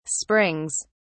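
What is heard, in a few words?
"springs", English, British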